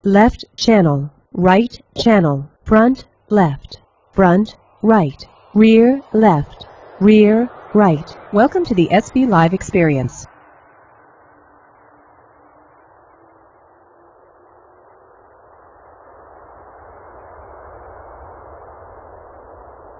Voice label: female plane noise; Plane Noise 3; After compression and decompression by the VLC HQ 16 codec at 32000 bps